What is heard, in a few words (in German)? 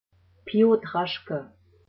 Ausgesprochen Deutsch